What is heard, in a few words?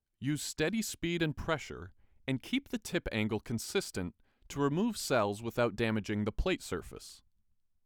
Scratch Assay, Voiceover